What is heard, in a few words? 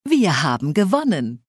We Succeeded, Speech German Advisors; Civ5